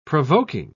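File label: "provoking", British English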